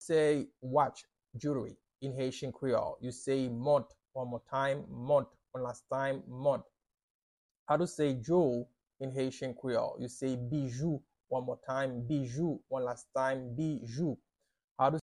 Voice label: Watch in Haitian Creole Mont pronunciation by a Haitian teacher